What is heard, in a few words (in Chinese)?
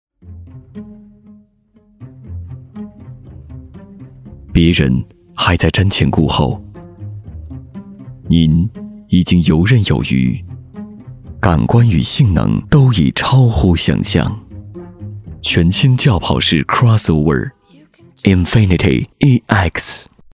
广告; 英菲尼迪